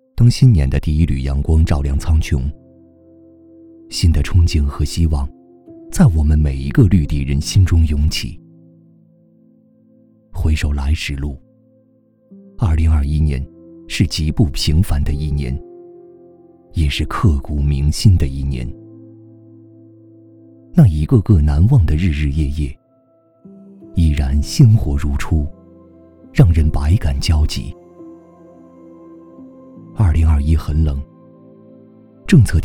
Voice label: 男 温暖宣传; 绿地

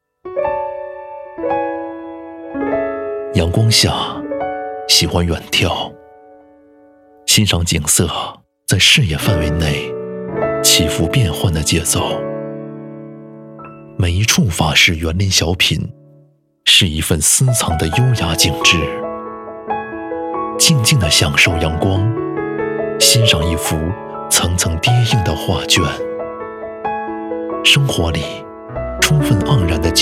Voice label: 男 地产广告 性感篇; 华润地产性感篇

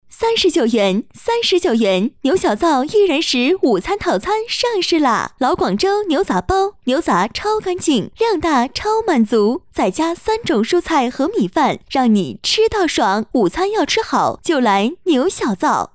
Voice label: 女 促销店铺广告类; 牛小灶

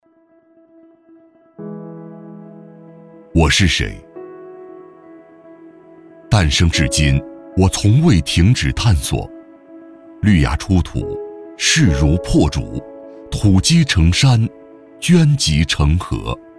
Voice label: 男 宣传片; 广药器化

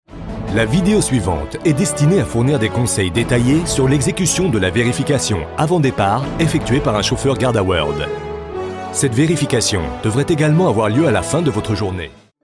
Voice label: 外籍法语男-公司宣传; GardaWorld

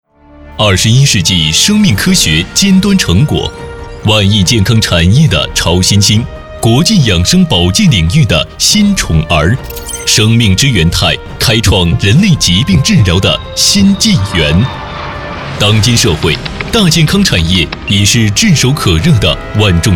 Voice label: 男 宣传

